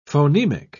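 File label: "phonemic", British English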